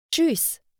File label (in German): "Tschüs", German; hello in german